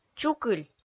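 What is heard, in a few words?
"чукыль", Komi, female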